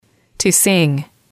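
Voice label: "to sing", English, female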